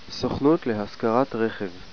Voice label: car rent, hebrew